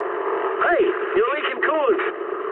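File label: damaged water mood medium, speech English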